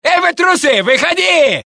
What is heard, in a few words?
Russian; mohaa